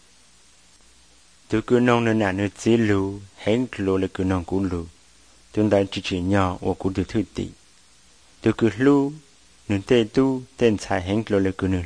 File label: portrait of jesus hmong blue